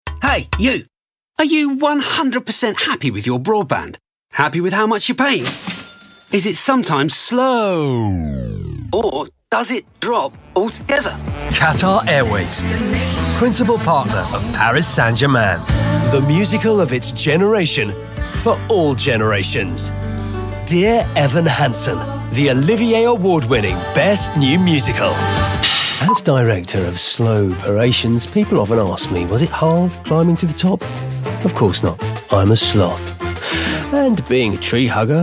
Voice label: commercial